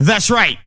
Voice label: "that's right", male; Voice